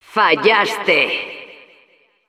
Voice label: Announcer you failed es; "Fallaste."; Narradora